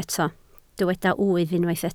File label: "wyf", Welsh